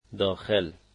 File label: "inside", dari